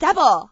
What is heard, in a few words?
double, Commentators